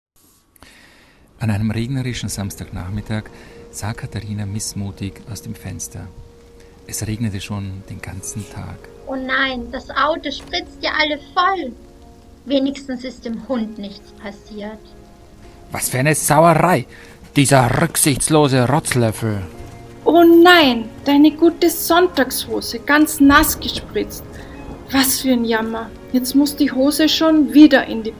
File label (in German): Hoerspiel